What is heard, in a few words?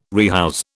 "rehouse", British